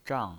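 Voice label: "zhang" Mandarin Chinese pinyin voice, fourth tone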